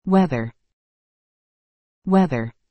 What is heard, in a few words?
"weather", English